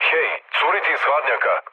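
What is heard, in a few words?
Serbian damaged water mood low, speech; War Thunder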